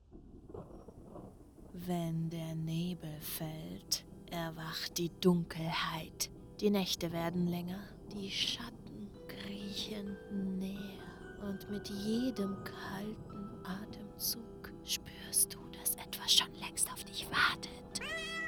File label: Halloween Event Promo